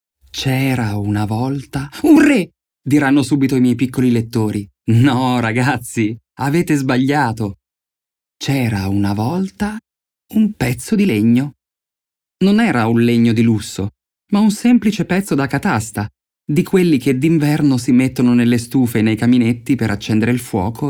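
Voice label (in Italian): Favola, voci maschili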